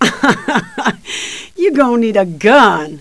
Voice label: female need gun; kingpin loc; lisa specific